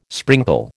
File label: "sprinkle", American